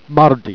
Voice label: "mardi", Jèrriais